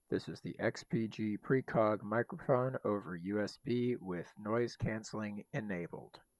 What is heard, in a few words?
Microphone Test Samples; XPG Precog USB ENC; XPG Precog Gaming Headset Review